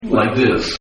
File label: Man Says Like This; Talking; Voice